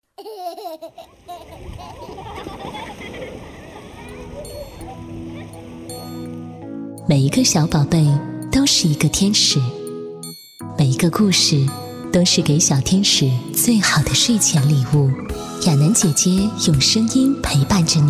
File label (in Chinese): 儿童故事; 美宝的魔法花园